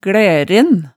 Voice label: "glæren", hemsedalsmaalet

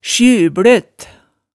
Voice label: "sjybløt", hemsedalsmaalet

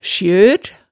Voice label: "sjør", hemsedalsmaalet

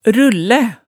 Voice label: "rullæ", Hemsedal

